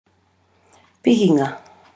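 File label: "pihinga", Māori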